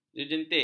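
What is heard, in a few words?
"jejentik", Malay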